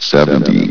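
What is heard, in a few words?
"seventy", male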